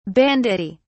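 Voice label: "banditti", British English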